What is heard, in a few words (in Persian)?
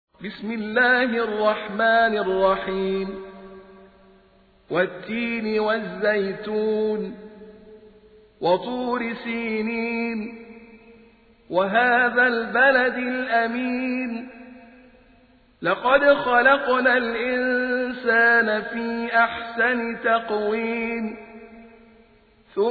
ترتیل; سوره تین